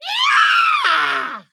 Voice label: Female Harpy Attack